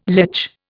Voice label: "lech", Indian

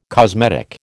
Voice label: "cosmetic", American

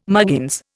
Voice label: "muggins", Indian

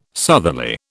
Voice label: "southerly", British

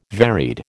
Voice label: "varied", American

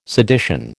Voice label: "sedition", American

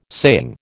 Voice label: "saying", American

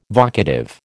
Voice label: "vocative", American

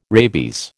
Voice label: "rabies", American

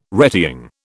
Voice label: "retying", British

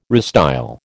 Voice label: "restyle", American

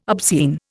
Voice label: "obscene", Indian

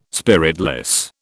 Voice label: "spiritless", British

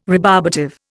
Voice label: "rebarbative", Indian

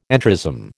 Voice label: "entrism", American

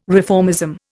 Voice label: "reformism", Indian

